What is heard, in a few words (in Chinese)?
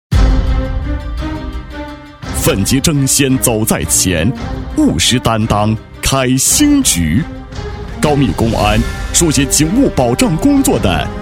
男 大气奋进, 厚重大气 大气浑厚; 奋楫争先走在前